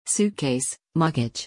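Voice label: "suitcase", English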